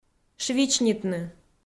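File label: "швичнитны", Komi, female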